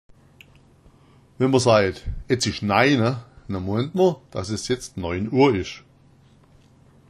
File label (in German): naina, schwaebisch